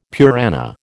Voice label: "Purana", American